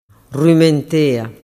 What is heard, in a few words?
"rumentea", dialettu